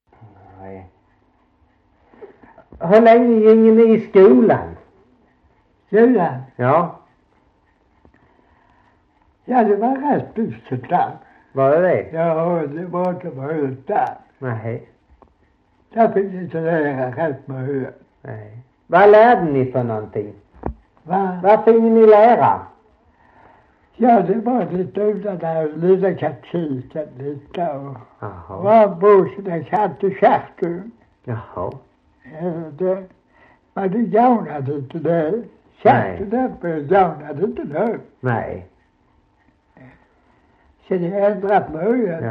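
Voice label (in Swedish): Slättåkra Halland, dialekter; Folkminnen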